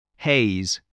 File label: "haze", English, spelling